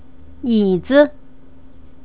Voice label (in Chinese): "yizi", Chinese pronunciation